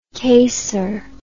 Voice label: "caser", English